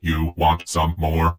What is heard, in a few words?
heavy gloat; Dystopia; vox new